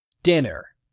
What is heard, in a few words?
"dinner", English; 3000 words